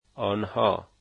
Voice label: "they" Dari

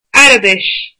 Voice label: "Arabic", Irish

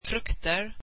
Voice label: swedish fruits

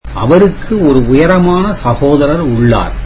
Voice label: tamil he has a tall brother

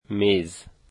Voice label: "desk", dari

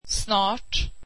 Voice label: swedish soon

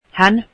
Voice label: finnish he

